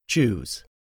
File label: "choose", english; 3000 words